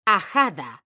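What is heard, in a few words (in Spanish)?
"AJADA" Spanish, female; mekanta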